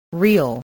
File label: "real", english